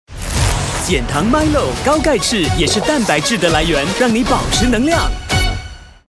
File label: Mandarin - Engaging, Credible, Young